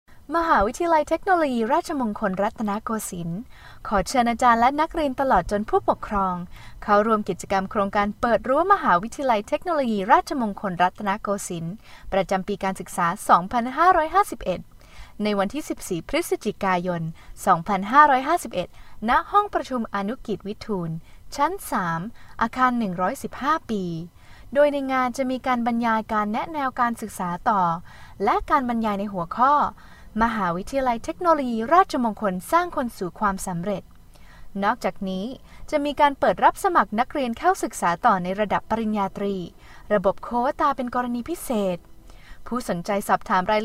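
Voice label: Thai, female, corporate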